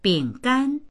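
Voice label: "bing gan", Mandarin; 饼干; ビスケット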